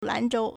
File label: "lan2zhou1", Chinese